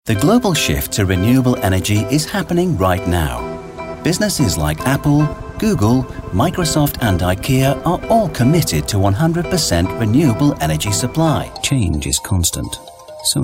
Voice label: British Voiceover Corporate

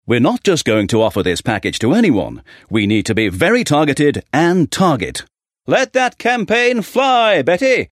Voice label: British Voiceover, RP Commanding Executive; LITTLE GREEN PLANE

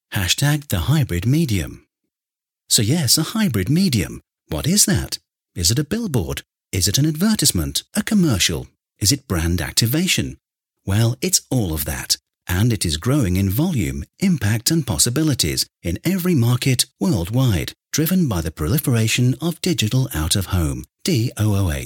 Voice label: British Voiceover Event; HEINEKEN COMMERCE WEEK 2018; THE HYBRID MEDIUM

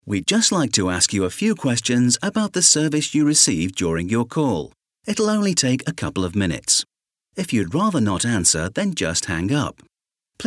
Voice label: British Voiceover Telephone Survey IVR; DAILY MAIL

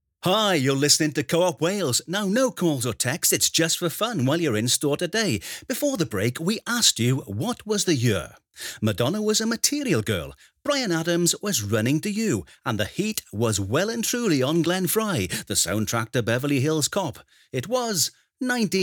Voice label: British Voiceover, In Store Radio; COOP WALES

